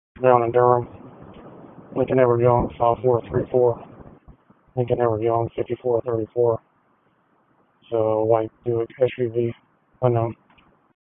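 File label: Wake Forest Police Dispatch, p25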